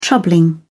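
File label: "troubling", British English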